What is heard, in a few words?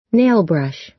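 "nailbrush", American English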